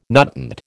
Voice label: "nutant", American